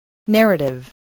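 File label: "narrative", English word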